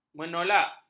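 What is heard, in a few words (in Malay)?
"menolak", Malay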